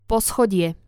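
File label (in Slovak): "poschodie", Slovak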